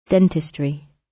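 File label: "dentistry", British English; Time, history; Thời gian, lịch sử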